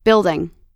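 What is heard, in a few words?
"building", American English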